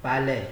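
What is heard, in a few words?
"pale", Nigerian Pidgin, male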